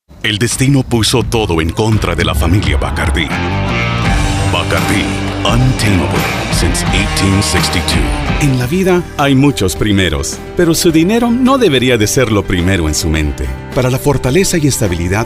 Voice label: Spanish commercial

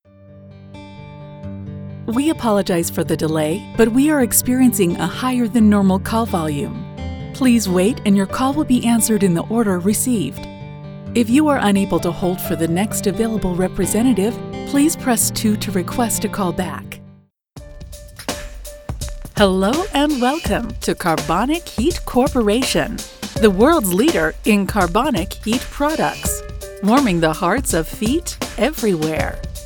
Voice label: PHONE

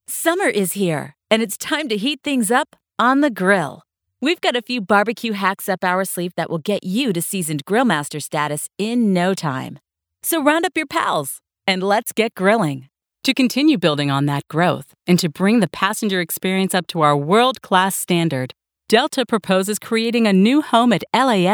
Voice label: dry

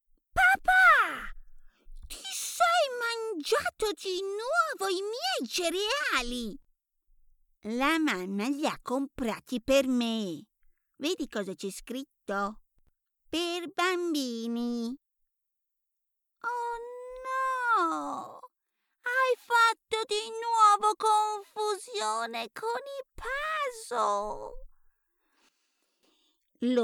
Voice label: Child Voice Voce Bambina

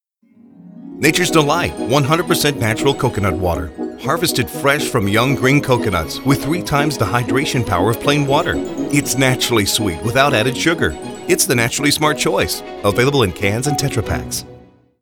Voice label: LIght and Easy

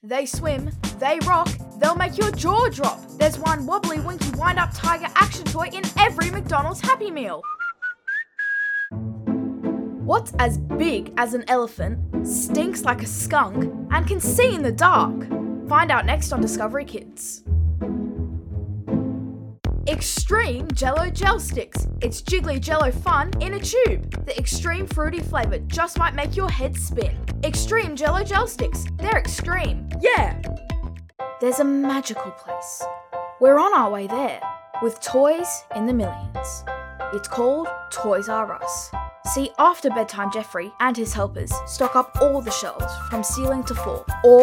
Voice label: Voice, Female

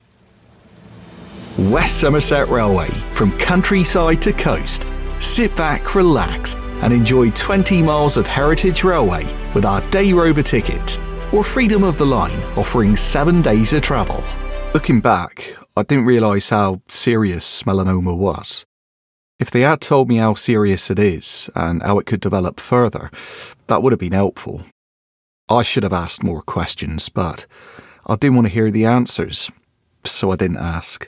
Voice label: Westcountry Accent

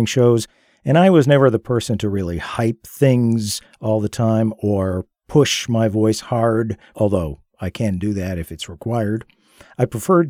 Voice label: Natural

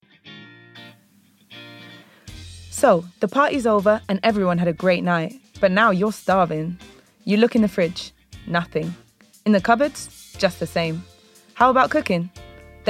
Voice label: Commercial Urban

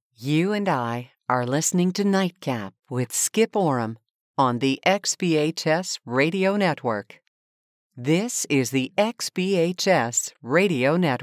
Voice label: Radio imaging

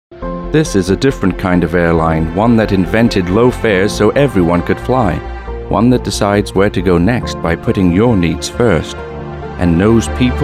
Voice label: TV Commercial

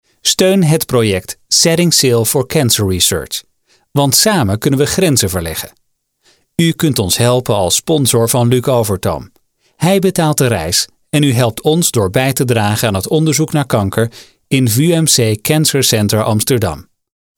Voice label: docu

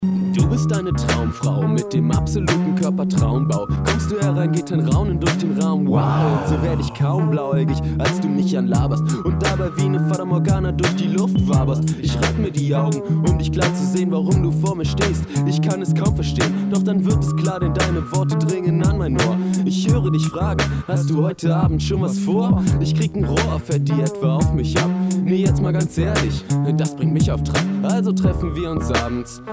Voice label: Hip Hop

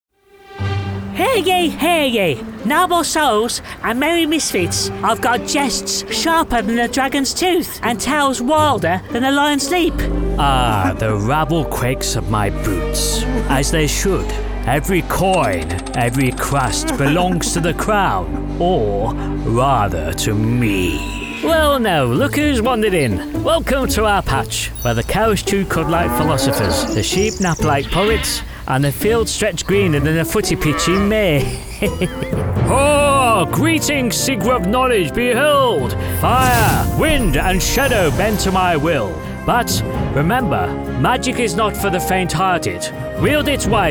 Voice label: Animation, Cartoon & Character Voice Overs

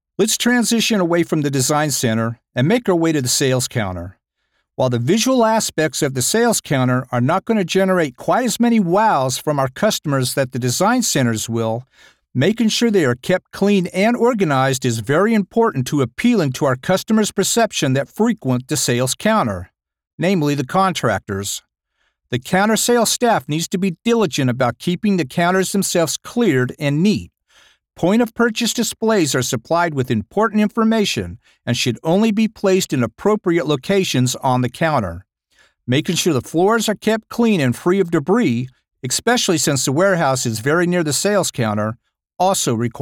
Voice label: Employee Orientation, excerpt

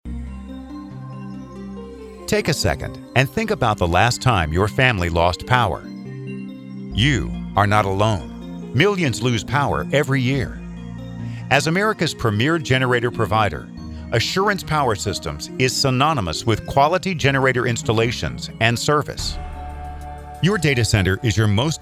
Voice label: CORPORATE

